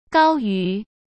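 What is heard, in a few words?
"高于", Mandarin Chinese; chinese-flashcards; hsk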